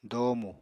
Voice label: "doomu", Apatani